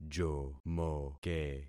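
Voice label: "jomoke", concatenated syllables; Affixing experiment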